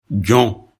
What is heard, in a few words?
"jon", lecture bm